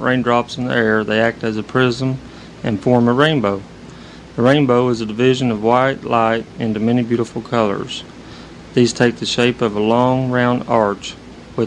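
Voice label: oklahoma, International Dialects of English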